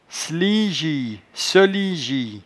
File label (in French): "slingie", patois jura